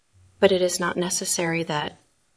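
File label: Voice Acting es Female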